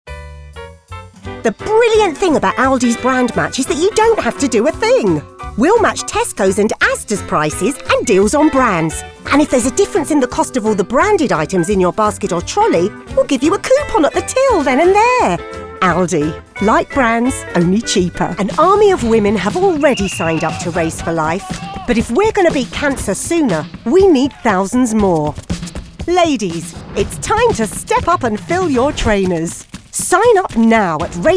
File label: Commercials